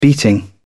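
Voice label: "beating", British English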